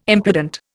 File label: "impudent", Indian